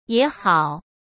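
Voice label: "也好", Mandarin Chinese; chinese flashcards; hsk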